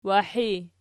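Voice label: "wahi" nominative, Archi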